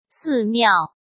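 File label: "寺庙", Mandarin Chinese; hsk; chinese-flashcards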